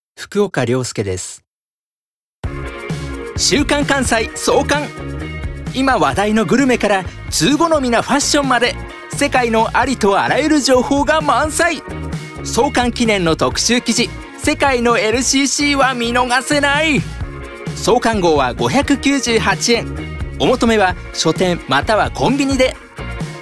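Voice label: commercial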